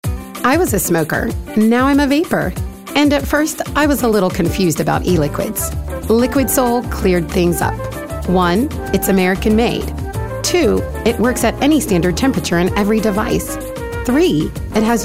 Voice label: Inspiring

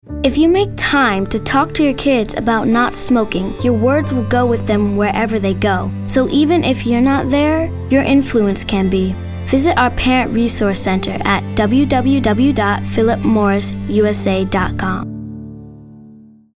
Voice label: Smoking PSA

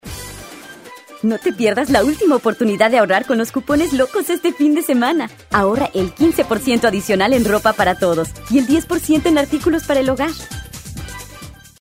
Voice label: Political Spanish

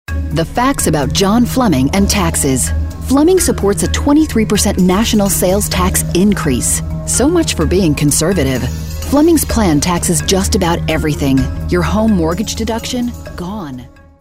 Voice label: Political Spot

